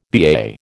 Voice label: "BAA", American

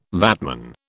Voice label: "VATman", British

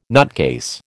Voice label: "nutcase", American

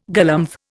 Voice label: "galumph", Indian

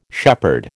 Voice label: "shepherd", American

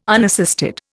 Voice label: "unassisted", Indian

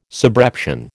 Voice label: "subreption", American

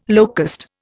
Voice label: "locust", Indian

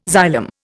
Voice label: "xylem", Indian

